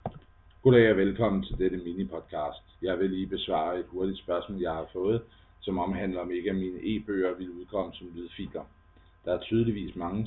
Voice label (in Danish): lydbog